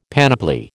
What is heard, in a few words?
"panoply", American